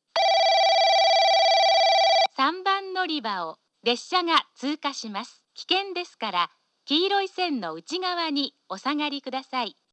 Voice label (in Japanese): 3番のりば通過放送 女声; 宇佐